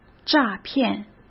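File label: "诈骗", Mandarin Chinese; hsk; chinese-flashcards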